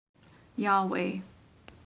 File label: "Yahweh", female voice